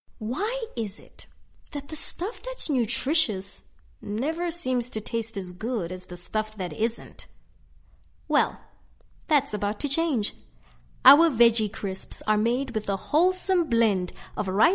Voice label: English Conversational

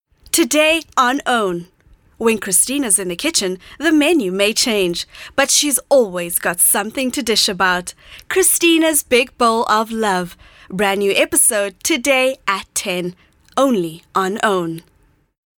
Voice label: English Promo

